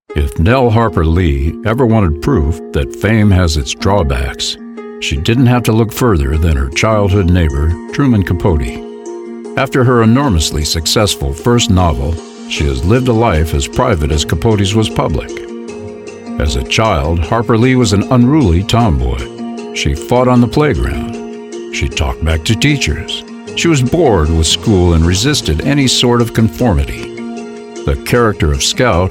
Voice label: Narration

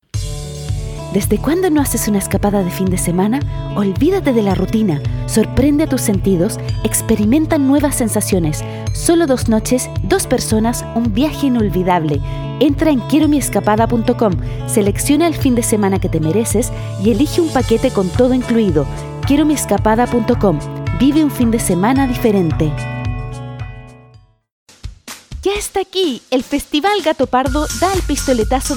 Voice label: Spanish Latin Chile

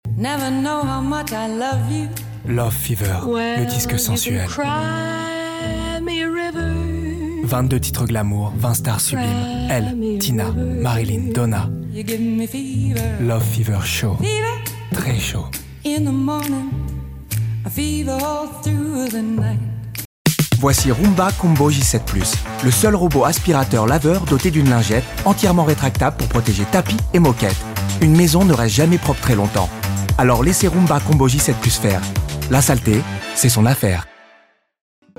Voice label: French English

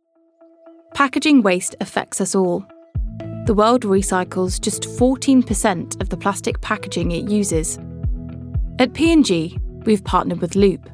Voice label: Corporate

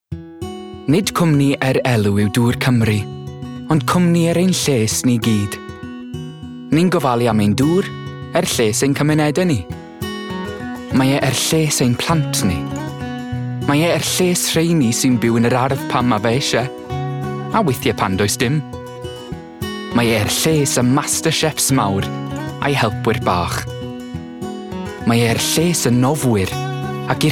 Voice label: Welsh; Welsh, Male, 20s-30s

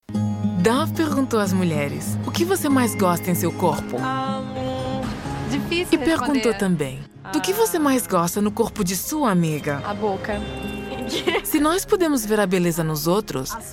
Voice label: Portuguese-Brazilian, Female, 20s-30s

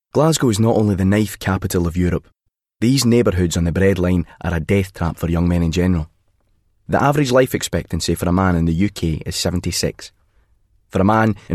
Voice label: Narration; 20s-30s; Male; Scottish

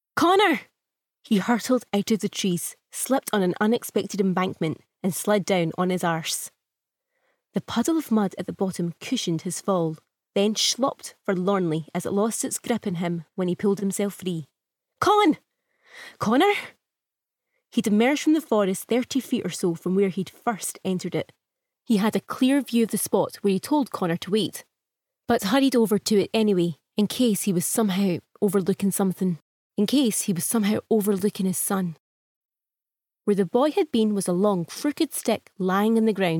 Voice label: Audiobook

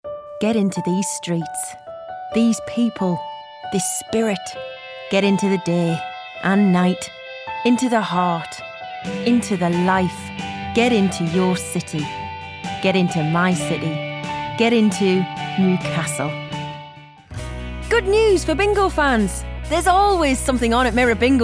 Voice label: Commercials

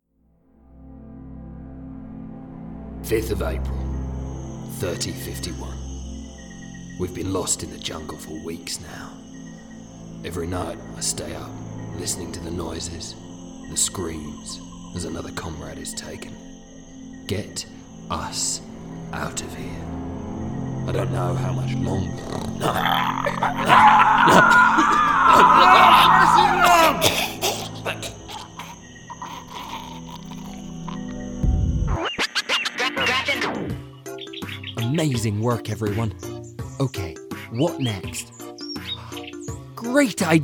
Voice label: video game reel home studio; 20s-30s; Male; Scottish

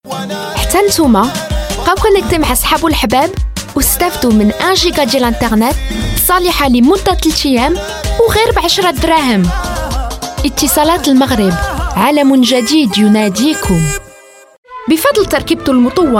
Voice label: Arabic

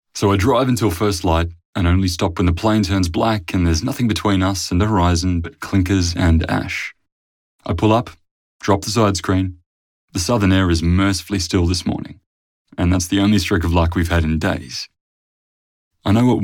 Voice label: AUDIOBOOK AUS, 20s-30s. Male. Australian.

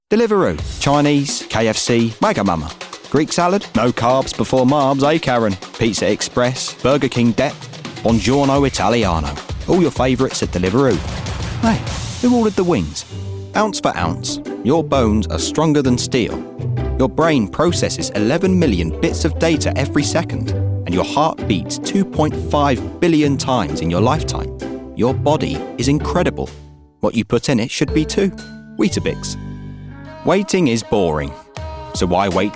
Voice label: Commercial

